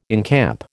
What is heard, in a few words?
"encamp", American